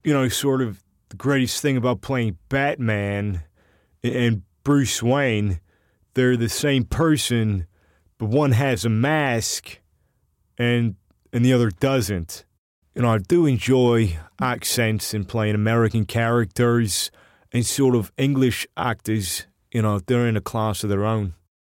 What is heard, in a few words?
Voice Talent Celebrity Voices; Christian Bale